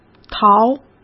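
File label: "桃", Mandarin Chinese; hsk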